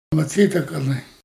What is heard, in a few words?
Mat sii Dakale, Dane-ẕaa; Maṯs̱ííʔ Dak'ale; Rêveurs